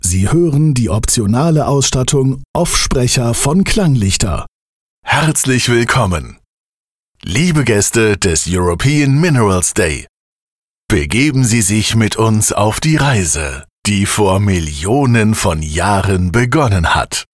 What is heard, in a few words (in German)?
Off-Sprecher-Beispiel